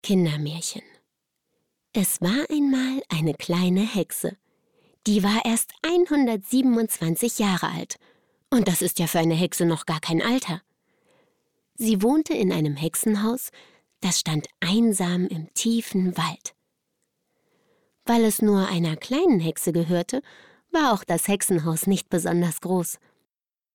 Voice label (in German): erzaehlung